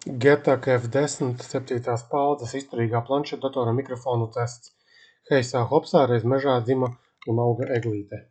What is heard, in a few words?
mics; Getac F110 G7; izturiga plansetdatora